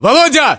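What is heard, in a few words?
"volodya", battlechatter, Russian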